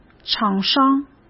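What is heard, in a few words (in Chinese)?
"厂商", Mandarin Chinese; hsk; chinese-flashcards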